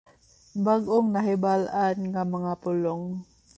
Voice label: Cebuano